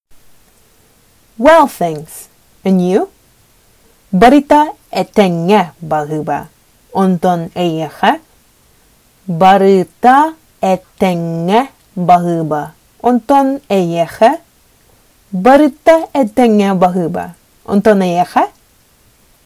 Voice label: Well thanks and ou, Sakha

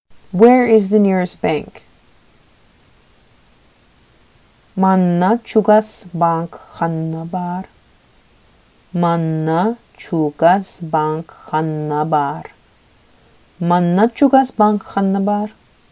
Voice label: Where is the nearest bank, Sakha

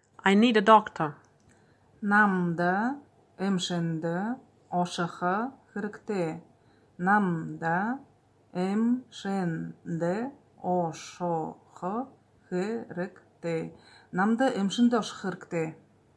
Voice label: I need a doctor, buryat

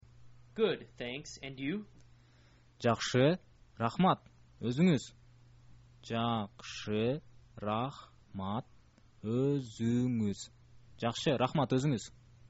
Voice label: fine thanks, kyrgyz

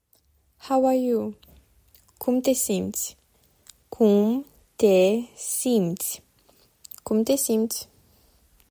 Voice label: How are you, romanian

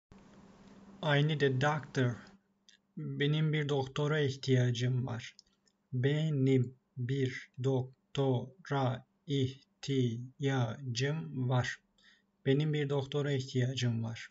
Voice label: i need a doctor, turkish; the talking turkish phrasebook

